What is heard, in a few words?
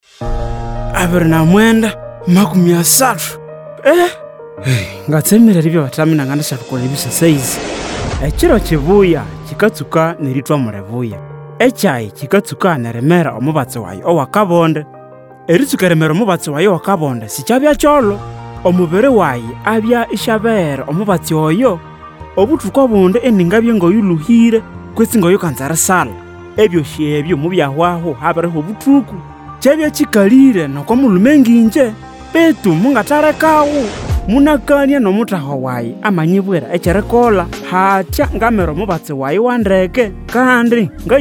Time Up HIV Spot New on Treatment Rukonzo